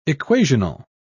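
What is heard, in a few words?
"equational", British English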